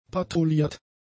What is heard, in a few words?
"patrouilliert", German; adjectives